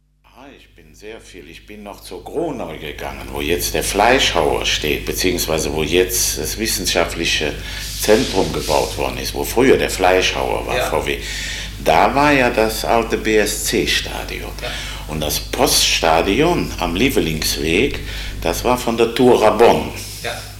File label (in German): rheinisches Hochdeutsch; sprache